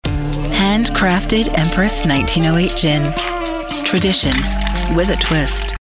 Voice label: Classic Smooth Luxury Femmie London; EMPRESSGIN

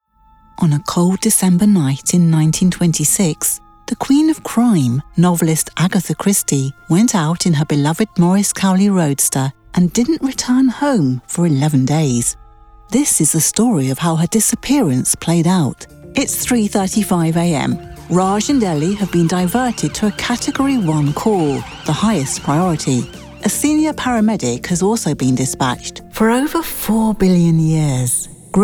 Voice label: Documentary; 6 spots

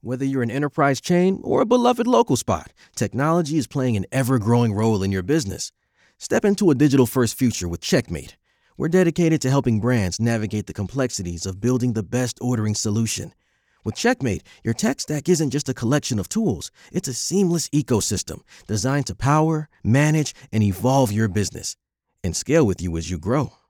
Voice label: Narration Gregarious, Personable, Conversational; Checkmate

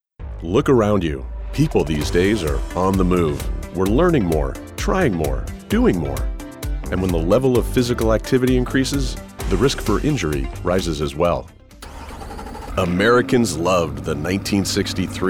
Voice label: narration

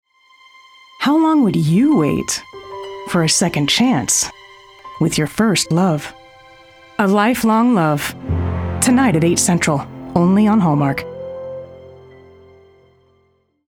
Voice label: Promo

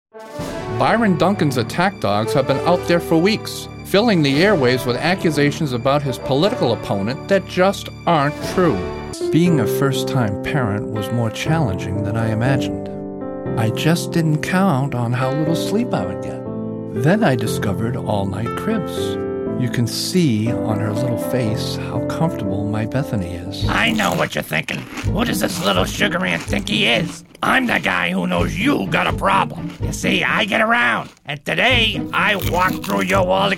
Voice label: Professional Short

